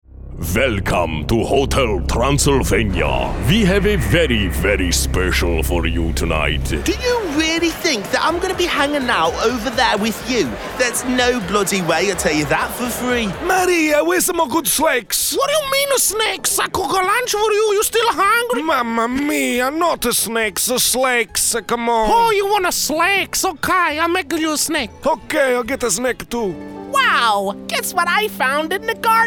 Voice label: Character

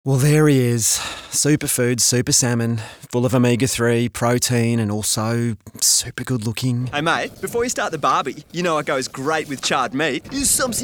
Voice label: voice acting

